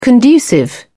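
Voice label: "conducive", British English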